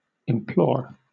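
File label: "implore", British English; pronunciations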